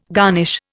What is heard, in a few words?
"garnish", Indian